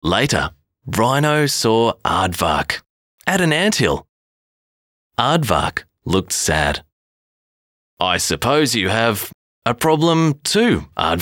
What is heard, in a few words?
childrens audio book voice over